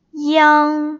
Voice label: "iang", Pinyin Finals